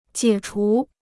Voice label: "解除", Chinese

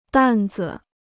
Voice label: "担子", Chinese

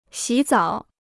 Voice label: "洗澡", Chinese

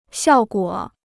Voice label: "效果", Chinese

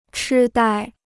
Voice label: "痴呆", Chinese

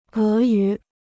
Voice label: "俄语", Chinese

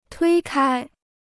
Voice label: "推开", Chinese

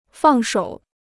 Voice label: "放手", Chinese

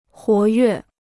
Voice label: "活跃", Chinese

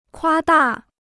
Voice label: "夸大", Chinese

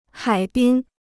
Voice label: "海滨", Chinese

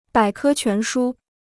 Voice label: "百科全书", Chinese

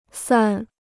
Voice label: "散", Chinese

